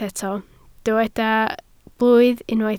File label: "blwydd", Welsh